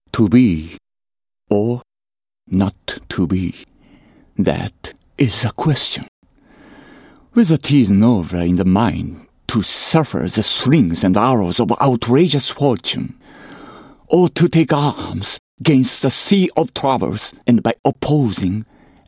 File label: english, male voice